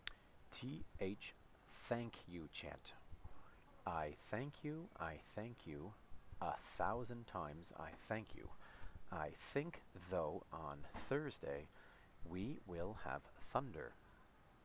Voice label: th chant; The Alphabet Chant th